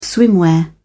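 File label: "swimwear", British English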